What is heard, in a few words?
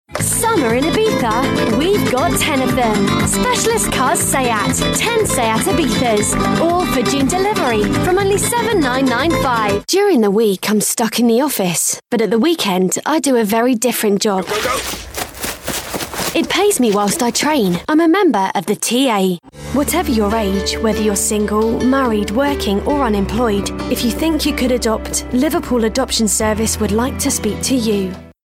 uk commercial